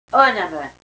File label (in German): "anemme", erzgebirgisch